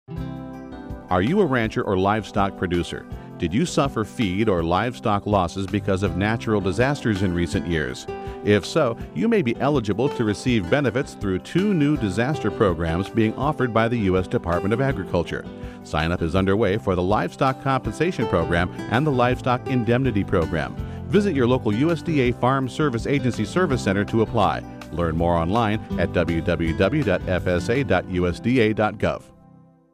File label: psa; fsa; sign